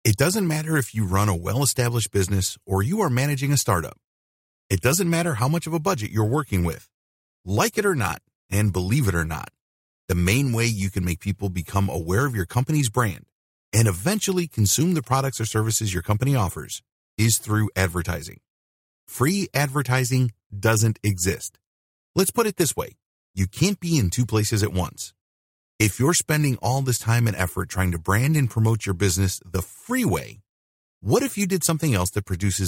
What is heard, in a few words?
Sales Video Voice Over; facebook ads